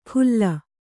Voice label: "ಖುಲ್ಲ", Kannada

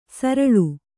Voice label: "ಸರಲ್", Kannada

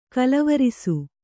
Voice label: "ಕೋಲುವರಸೆ", kannada

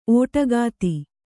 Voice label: "ಒಡಗತೆ", Kannada

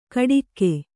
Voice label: "ಕಡಿಕ್ಕೆ", Kannada

